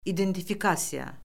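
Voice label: "identifikasiya", Azerbaijani; Orfoqrafiya Lüğəti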